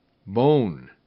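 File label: "bone", English; RhymeTest; alone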